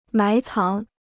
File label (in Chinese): "埋藏", Chinese